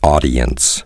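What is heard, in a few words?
"audience", spoken word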